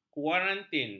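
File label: "kuarantin", Malay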